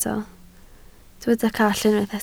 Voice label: "call", Welsh